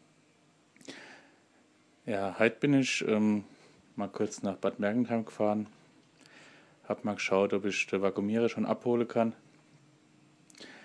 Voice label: Dialekt zum Reinschnuppern

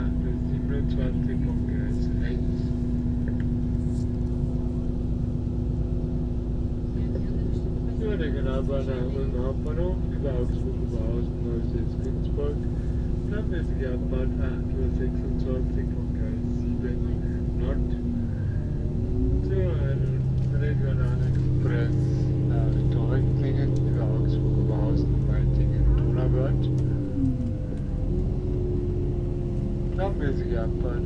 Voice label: Ansage im Zug

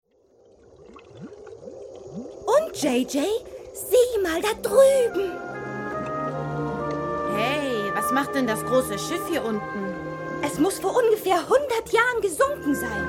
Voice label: zeichentrick synchron stimme

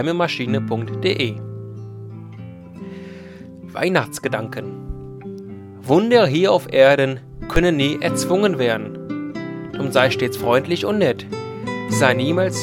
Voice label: liest Weihnachtsgedichte; weihnachtsgedanken

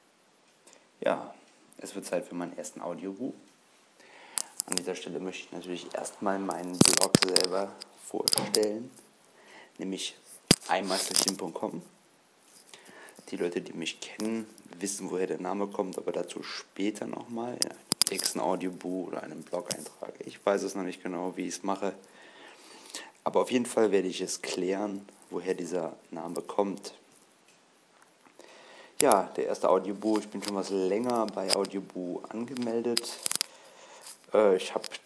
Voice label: erstes gequasel uber iphone

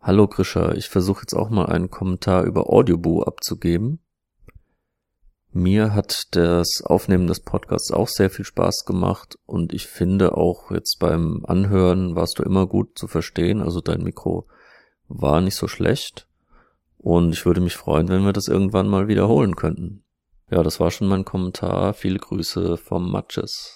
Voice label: audiokommentar

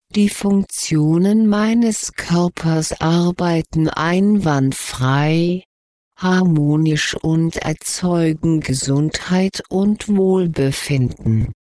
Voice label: positive affirmationen korperfunktion

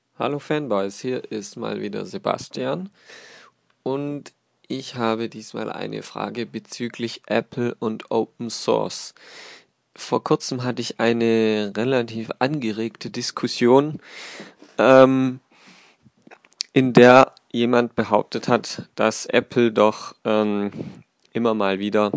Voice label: kommentar

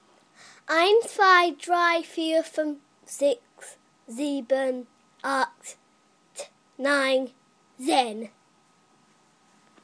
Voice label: das zählen von 1 bis 10 in deutscher sprache

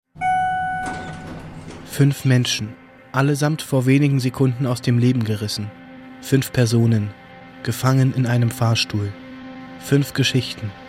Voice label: live horspiel; hoch5

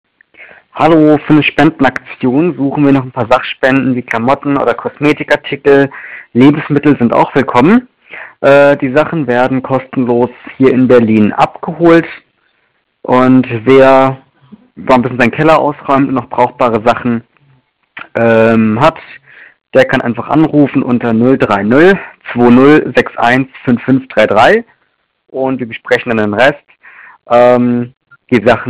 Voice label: Spendenaufruf per Telefon

